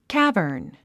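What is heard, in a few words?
"cavern", English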